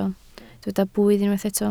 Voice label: "blwydd", Welsh